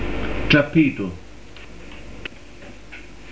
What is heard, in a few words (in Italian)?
"trappitu", pronuncia, dizionario carigliaro